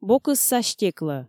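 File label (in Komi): бокысса стеклӧ, Komi, female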